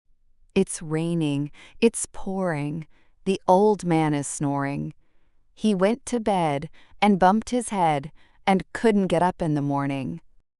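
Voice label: female US, classic rhymes; Its Raining Its Pouring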